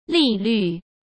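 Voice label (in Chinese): "利率", Mandarin Chinese; hsk; chinese-flashcards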